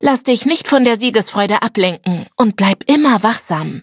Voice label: victory, de